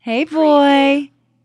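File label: Woman Says Hey Boy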